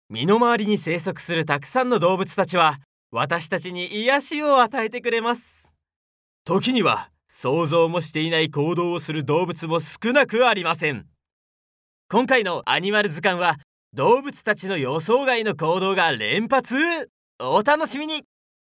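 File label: narration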